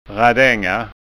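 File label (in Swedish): "radanga", Skåne dialect Swedish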